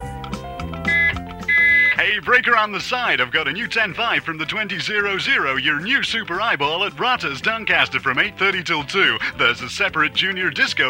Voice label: Radio Hallam Advert; Rotters Doncaster